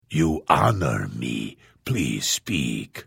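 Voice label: Hello, Vo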